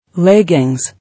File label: "Leggings", English